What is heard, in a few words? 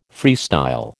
"freestyle", American